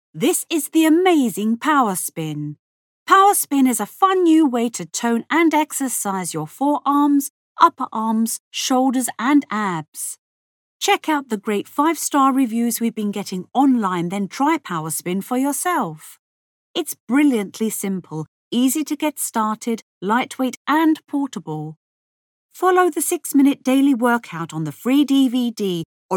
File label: commercial